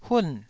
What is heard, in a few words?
"hun4", taiwanese